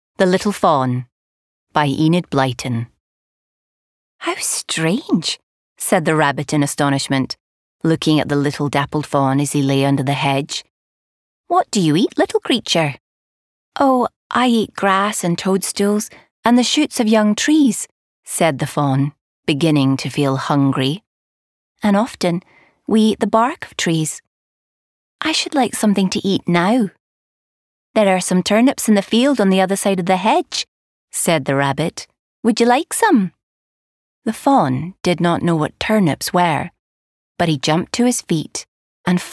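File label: AUDIOBOOK, Female; THE LITTLE FAWN AUDIOBOOK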